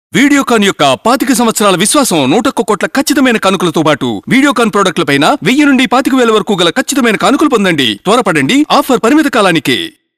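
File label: Telugu, male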